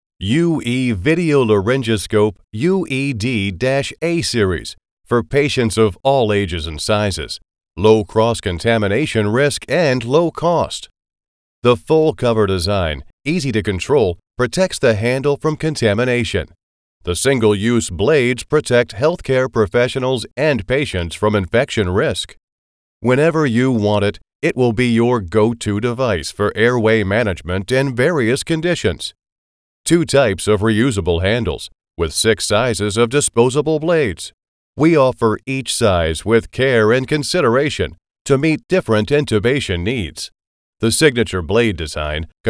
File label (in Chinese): 英文 可视喉镜